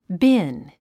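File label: "bin", English word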